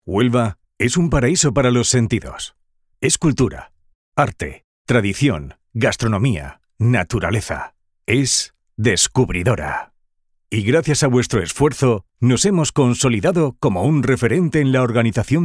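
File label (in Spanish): corporativo España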